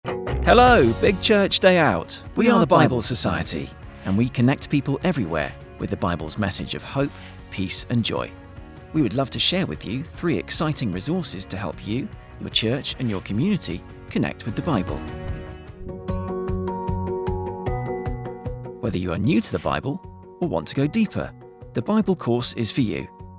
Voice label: voiceover; Bible Society Big Church Day Out